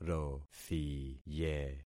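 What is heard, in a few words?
"rothiye", concatenated syllables; Affixing experiment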